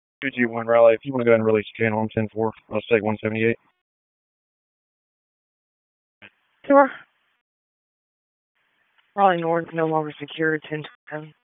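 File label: Police Northeast District Disp, radio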